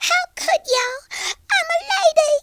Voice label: fatality, Southern Belle; speech

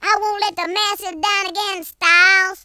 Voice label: oops, Gangsta; speech

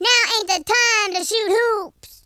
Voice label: "grenade", Gangsta; speech

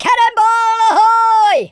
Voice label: "incoming", Pirate

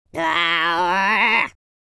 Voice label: angry, Scotsman; speech

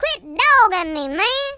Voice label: leave me alone, Gangsta; speech

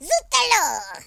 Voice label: oi nutter, French Lover; speech

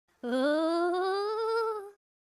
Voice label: scared; Biggles

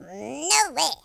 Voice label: bummer, French Lover; speech

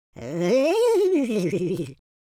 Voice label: scared, super hero speech

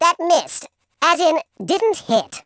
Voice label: missed, speech, Patronising

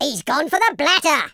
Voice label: fire, Footy Fan speech

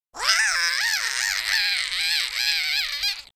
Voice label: scared, speech, French

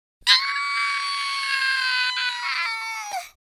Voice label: scared, Southern Belle; speech

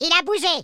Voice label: oops, French; speech

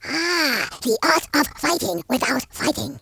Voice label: amazing, speech; Confucious

